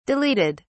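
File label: "deleted", British English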